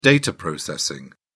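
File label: "data processing", English, British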